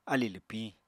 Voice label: "alì lwpiñ", Apatani; [alì lɨpĩ] n. instep